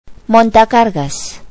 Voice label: "elevator", Spanish